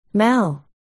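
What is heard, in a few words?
"mel", spoken word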